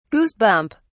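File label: "goosebump", British English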